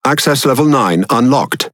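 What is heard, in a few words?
Speech Access Level, British English; World Of Warships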